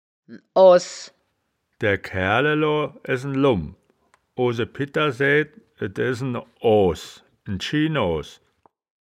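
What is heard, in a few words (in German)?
"oahs", wittlicher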